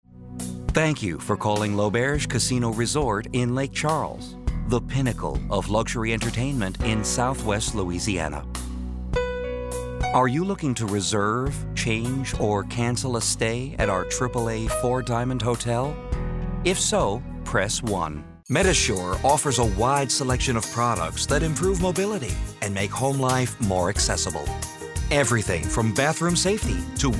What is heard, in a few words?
On Hold; Male Voice Talent